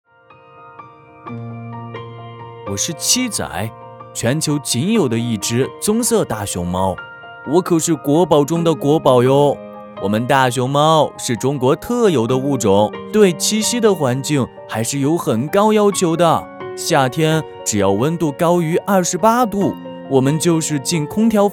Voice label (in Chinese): 仿童声; 美的智慧家小剧场之熊猫篇